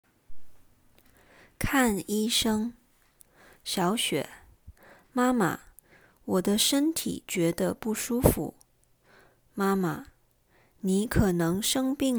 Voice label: conversation, mandarin; mandarin lesson materials